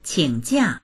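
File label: "qing3jia4", Mandarin Chinese; 请假; 休みを取る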